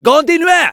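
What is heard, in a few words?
Medic cheers, French; Continuez !; Robot Medic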